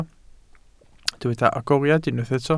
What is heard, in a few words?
"agoriad", Welsh